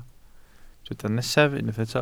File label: "nesaf", Welsh